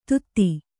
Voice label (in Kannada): "ಧೂತಿ", Kannada